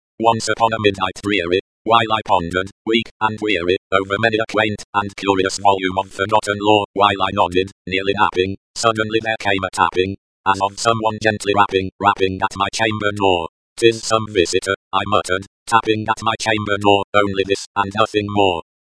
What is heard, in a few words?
espeak, speech